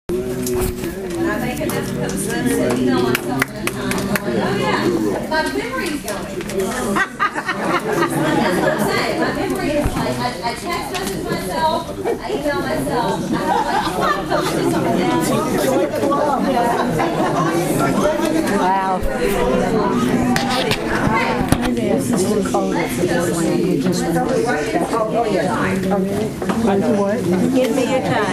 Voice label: announcements; NYCLC; REHEARSALS SPRING 2013